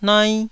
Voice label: "nai1", taiwanese

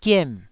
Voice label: "giem4", Taiwanese syllable

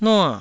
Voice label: "nua4", Taiwanese

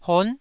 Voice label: "hon9", taiwanese

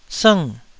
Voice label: "sng4", Taiwanese syllable

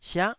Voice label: "siah9", taiwanese Syllables

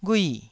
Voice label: "ghui4", Taiwanese syllable

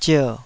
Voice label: "zier3", Taiwanese

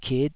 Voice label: "kit5", taiwanese syllables

